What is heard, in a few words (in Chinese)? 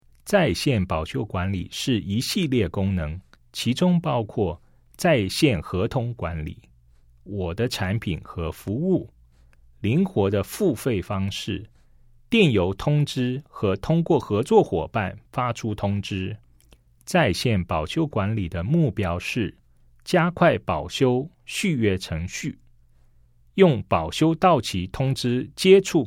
CHINESE